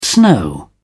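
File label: "snow", British English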